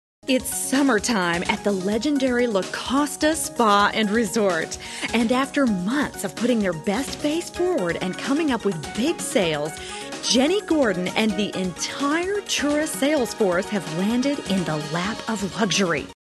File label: Industrial, Spa Sales